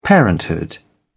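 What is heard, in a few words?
"parenthood", British English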